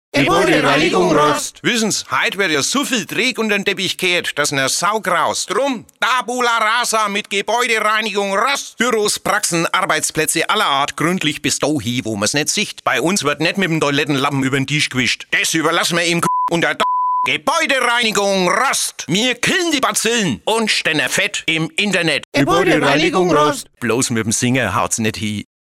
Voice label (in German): radiospot